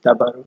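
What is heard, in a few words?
"dabaru", Nigerian Pidgin, male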